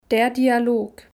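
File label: "der Dialog", German; Kapitel 2; Alphabet erste Schritte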